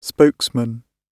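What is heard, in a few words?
"spokesman" British English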